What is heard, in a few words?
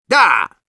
medic yes, ru; «Да!»